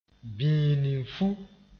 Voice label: "bĩinifu", moore

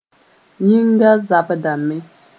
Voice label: "yĩnga zabdame", moore

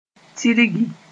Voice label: "tirgi", Moore

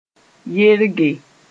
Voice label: "yerge", Mooré; scratch sb.

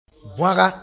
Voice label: "bõaga", moore

